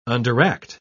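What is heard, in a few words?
"underact", British English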